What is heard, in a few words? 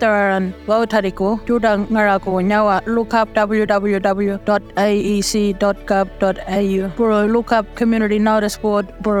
Ngaanyatjarra Remote voting; first nations